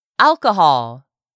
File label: "ALCOHOL", english; 3000 words